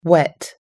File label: "wet", us english